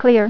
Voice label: "Clear", Voice Female; TalkingKeys